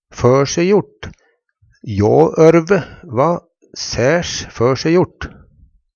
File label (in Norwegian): for se gjort, Numedalsmål